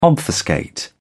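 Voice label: "obfuscate", British English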